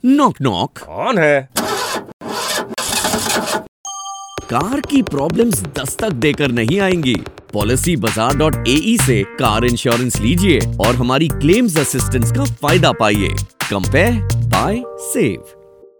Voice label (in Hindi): Hindi spot, radio